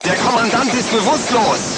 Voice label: voice message commander contused, crew tanks german